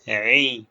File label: short voyels arabic alphabet